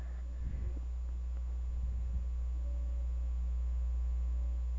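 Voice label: "bicycle", spoken word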